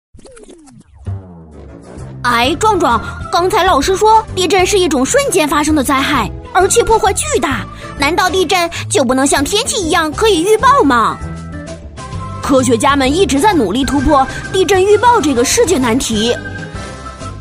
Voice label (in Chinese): 男童 防震知识; 贝贝和壮壮